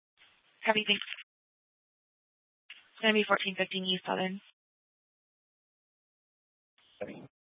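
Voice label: police department Dispatch, scanner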